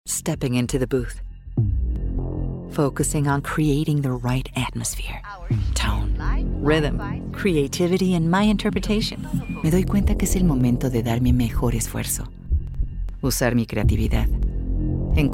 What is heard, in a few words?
Locutora Bilingual